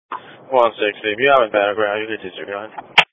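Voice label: Police Patrol Dispatch; New Bern Police Patrol 1 (Dispatch) (TG 200)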